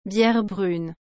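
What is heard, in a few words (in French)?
"bière brune", French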